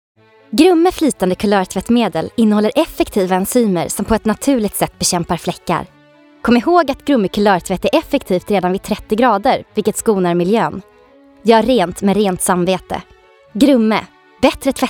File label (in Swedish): Reklam